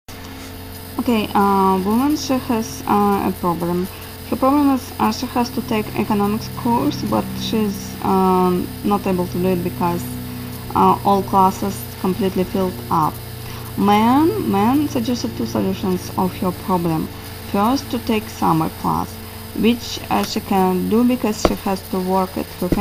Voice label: Speaking Student Response Speaking Task 5, TOEFL